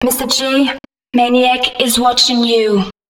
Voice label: female, spoken hooks

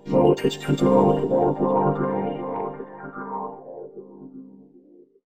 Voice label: Voltage Control, Robotic Vox; 90s Sample CDs; Club Techno

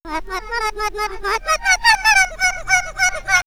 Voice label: "Reversed", house&rapvocals; X-Static Goldmine

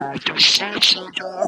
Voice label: FX TALK, PROCES, Funky Vocals; USB Soundscan vol.18; AKAI

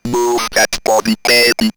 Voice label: Robot Move That Body, robot vox; X-Static Goldmine

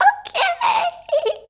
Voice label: "Okay", shout; Best Service; Hyper Dance

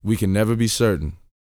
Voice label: TALK, Vocal, SCI-FI; 5 SCI-FI CHZ

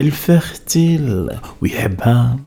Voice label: POET, POET VOICE, Arabian Traditions

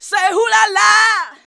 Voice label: "say", shouts; Best Service; Hyper Dance

